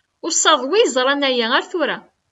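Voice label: "Uṣaḍ wi yeẓran aya ar tura.", Kabyle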